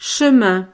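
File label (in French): "chemin", French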